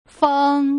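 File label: "feng", Mandarin Chinese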